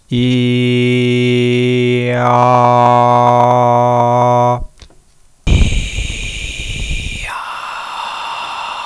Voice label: ee aa both